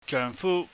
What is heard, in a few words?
"jeuhngfu", Cantonese